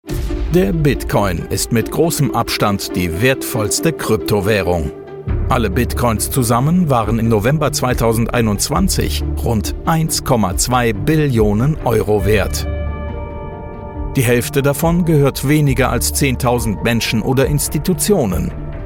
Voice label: german documentaries